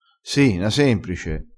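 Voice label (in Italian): "semprice", dialettale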